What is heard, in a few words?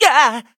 hit, en; cookie run